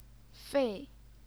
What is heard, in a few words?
"fei4", chinese pinyin